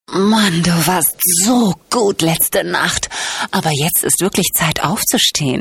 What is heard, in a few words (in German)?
weck klingeltoene sexy wecker